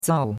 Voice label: "zao4", chinois; Cours de Chinois